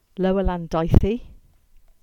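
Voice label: English; Lower Landithy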